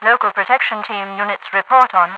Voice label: report on, radio voice; Half Life 2